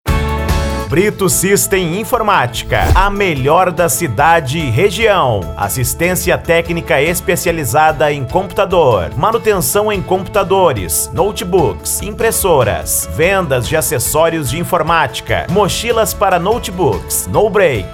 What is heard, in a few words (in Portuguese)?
Locutor; Brito System Informatica Juruti PA